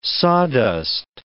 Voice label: "sawdust", English

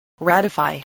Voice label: "ratify", english

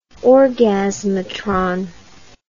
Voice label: "orgasmatron", English

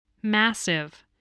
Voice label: "massive", spelling voice

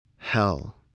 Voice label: "hell", English, spelling

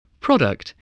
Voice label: "product", voice, spelling

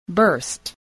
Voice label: "burst", English

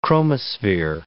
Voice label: "chromosphere", English

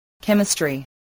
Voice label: "chemistry", English, voice

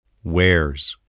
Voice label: "wares", spelling

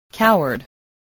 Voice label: "coward", voice spelling

